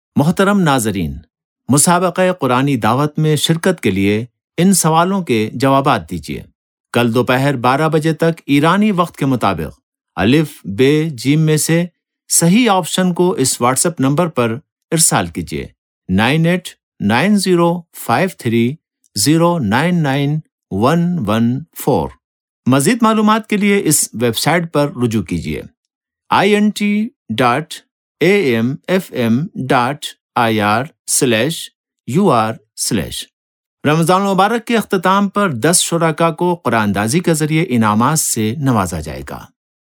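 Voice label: Urdu Male; Ordo; zaban kharjeh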